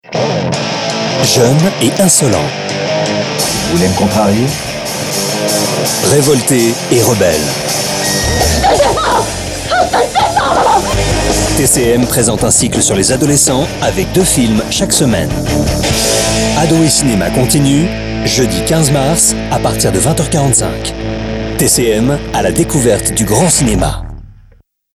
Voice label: Bande annonce; TCM

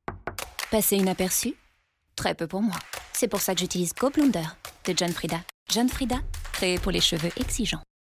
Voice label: pub TV FR; JohnFrieda

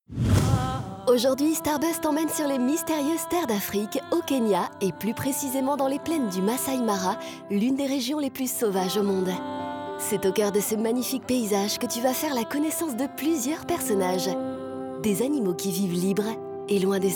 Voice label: narration démission souriante jeune; STARBUZZ

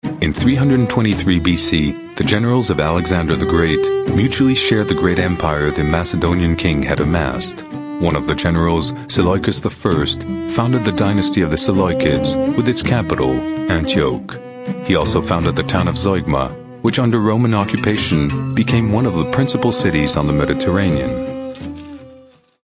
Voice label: US narrateur sobre voix off voice over; Zeugma

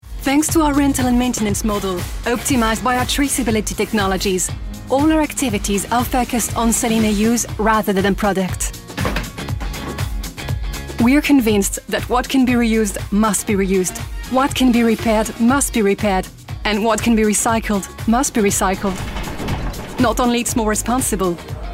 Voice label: anglais

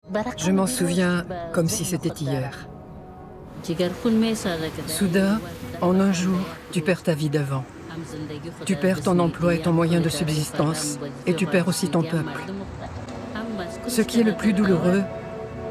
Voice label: DOCUMENTAIRE narration vo; 3 femmes afghanes resistantes en exil; ARTE

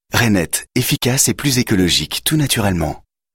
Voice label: Rassurant affirmé PUBLICITE TV signature Pub TV; RAINETT

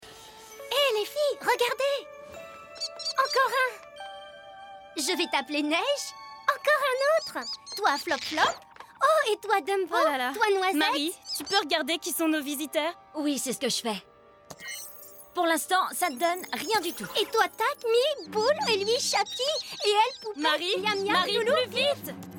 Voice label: Voix petite fille animation; Fantasy Patrol

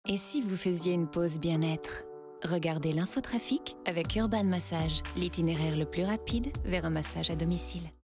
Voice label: billboard FR; Urbanmassage

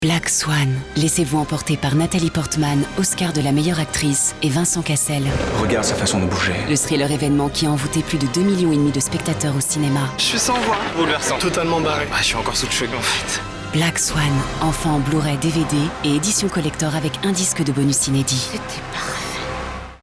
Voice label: Radio; Black Swan

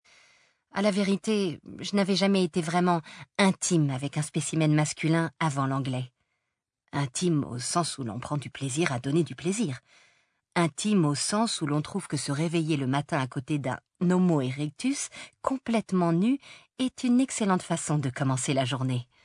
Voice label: NARRATION; PORTRAIT DE L'ESPION

